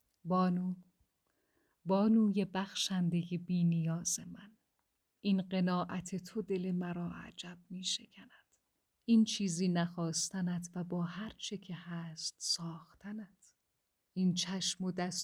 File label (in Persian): عاشقانه, لحن عاشقانه